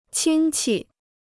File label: "亲戚", Chinese